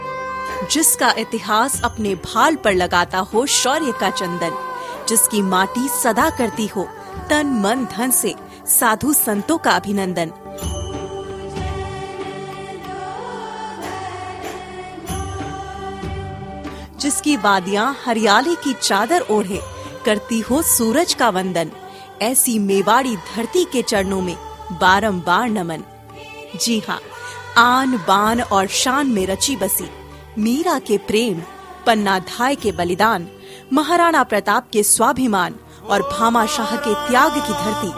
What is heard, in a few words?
documentary vo Hindi